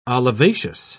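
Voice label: "olivaceous", British English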